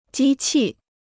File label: "机器", Chinese